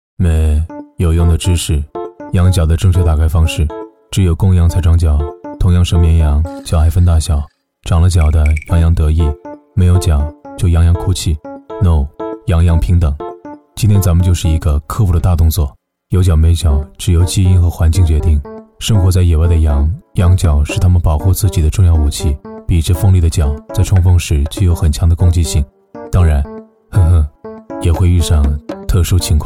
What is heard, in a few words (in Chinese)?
男 科普 介绍 搞怪